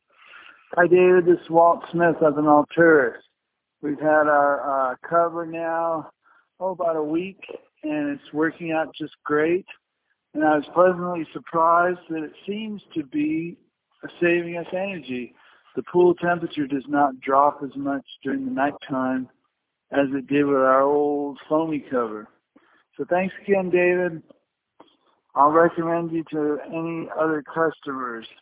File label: voicemail testimonial